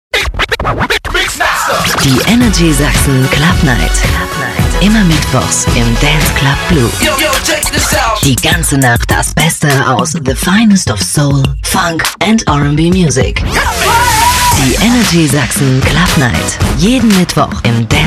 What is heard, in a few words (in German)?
Radiospot; Tanzclub